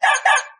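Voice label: dog, voices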